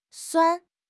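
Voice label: "suan1", Mandarin Chinese; HSK4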